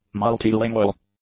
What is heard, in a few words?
"multilingual", English; adjectives